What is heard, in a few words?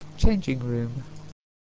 "changing room", English